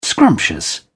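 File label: "scrumptious", English, British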